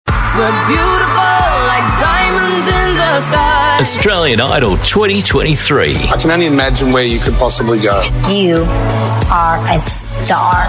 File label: AU English; Australian Idol